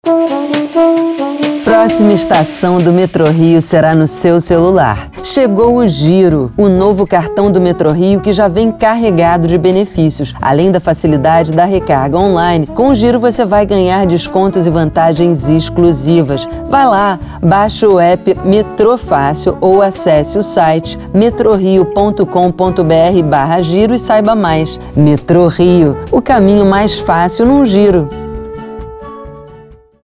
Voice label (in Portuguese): spot; metrorio; giro